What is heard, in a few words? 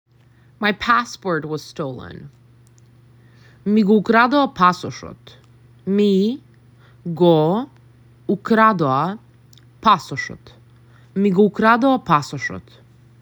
My passport was stolen, macedonian; the talking macedonian phrasebook